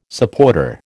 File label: "supporter", American